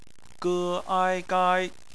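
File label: "gai" bopomofo, Chinese; phonetics; bpmf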